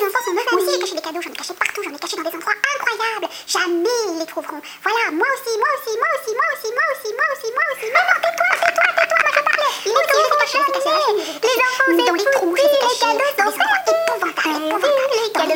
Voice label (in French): voix des lutins